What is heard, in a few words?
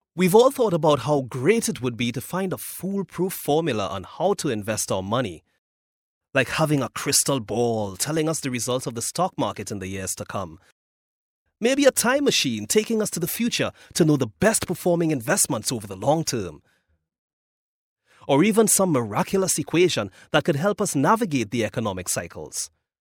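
English Caribbean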